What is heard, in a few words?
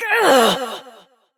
Frustration; Evil Twin D; Warframe; Entrati Doppelganger; Battle Barks